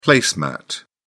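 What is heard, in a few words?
"place mat", British English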